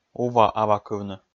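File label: "ува-авакывны", Komi, male; kpv audio dict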